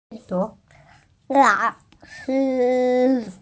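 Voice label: "lao3shi1", Mandarin Chinese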